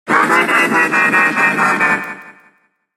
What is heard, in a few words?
evil lead vo